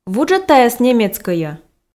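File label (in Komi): вуджӧд тайӧс немецкӧйӧ, Komi, female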